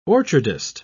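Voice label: "orchardist", English, British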